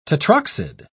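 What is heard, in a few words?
"tetroxide", English, British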